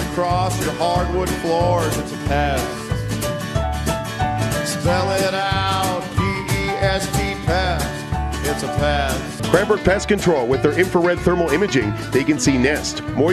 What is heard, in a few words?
radio spot earwig